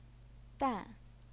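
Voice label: "dan", fourth tone, Chinese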